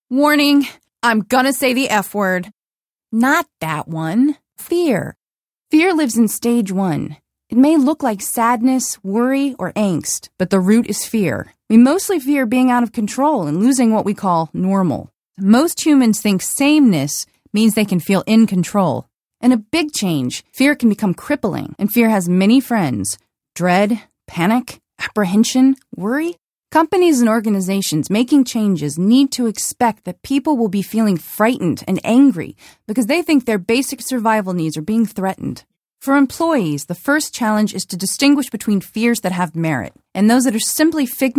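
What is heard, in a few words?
voice over female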